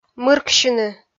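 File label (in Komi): "мырксьыны", Komi, female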